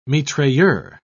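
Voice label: "mitrailleur", British English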